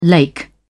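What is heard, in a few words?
"lake", British English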